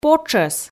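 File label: "потшӧс", Komi, female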